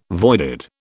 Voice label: "voided", British